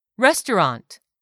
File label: "restaurant", English; 3000 words